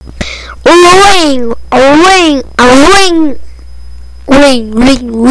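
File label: "ring", spoken word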